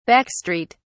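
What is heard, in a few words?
"back street", British English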